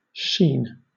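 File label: "sheen", British English; pronunciations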